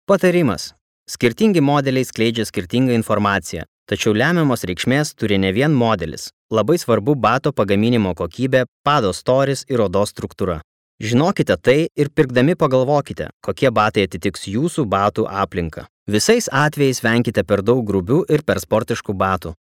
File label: male lithuanian voice over talent narrative